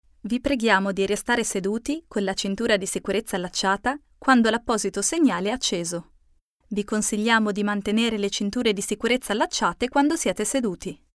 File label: female italian comercial; ideasonora